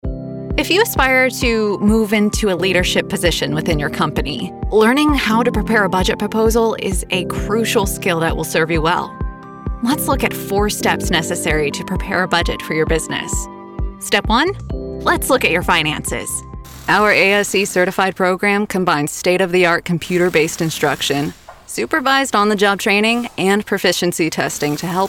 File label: 30s 40s Female Narration